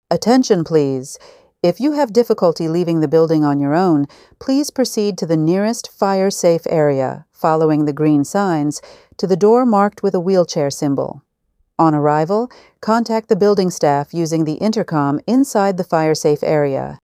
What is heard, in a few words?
emergency announcement assistance reduced mobility; emergency voice announcements